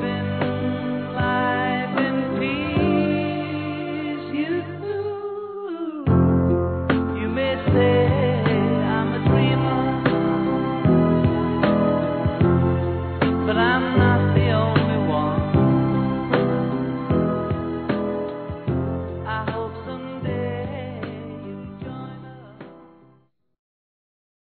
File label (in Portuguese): Ano Novo p amizade Feminino; Telemensagens; Natal e Ano novo